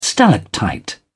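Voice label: "stalactite", British English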